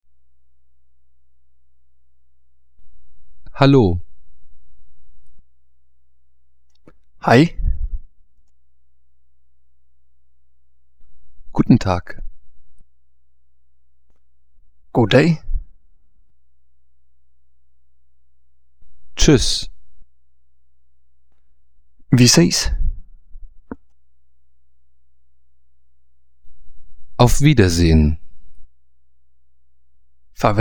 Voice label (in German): daenisch